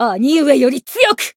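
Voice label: Voice growth Japanese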